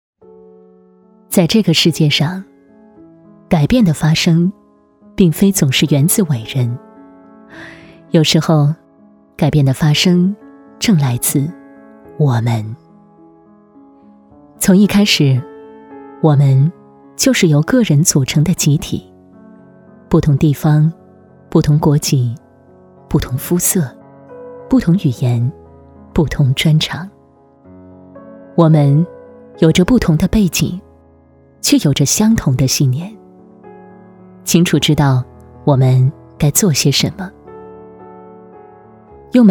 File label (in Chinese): 女, 讲述旁白; 这 就是 我们